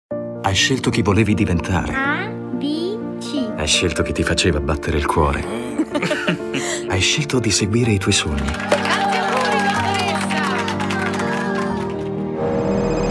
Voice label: spot radio; cellulare alla guida

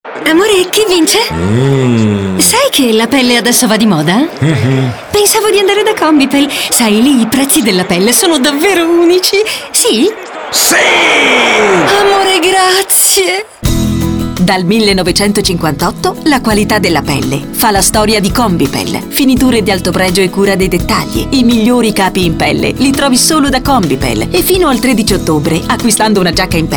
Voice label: ISTITUZIONALE; Conbipel

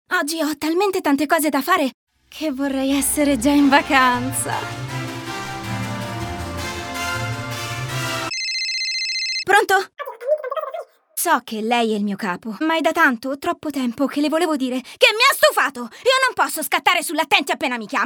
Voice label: spot ironico

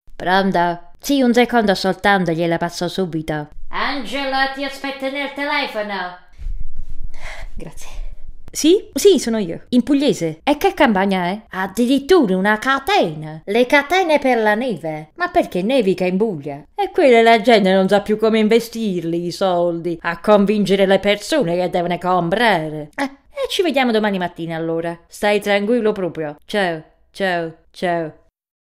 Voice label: pugliese

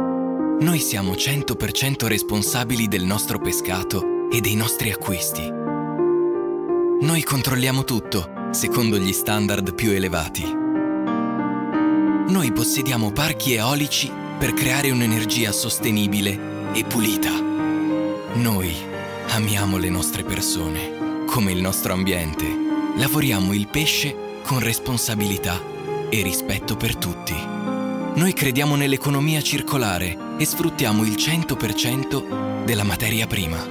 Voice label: tvc tonno mare aperto

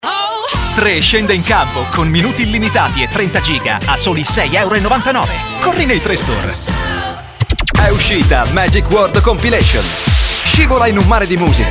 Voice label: allegro frizzante

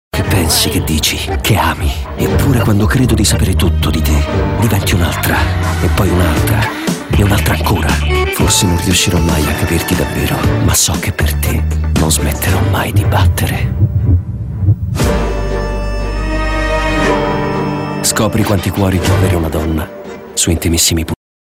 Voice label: spot; Monica Bellucci; Intimissimi

